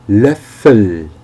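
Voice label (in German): "loeffel", German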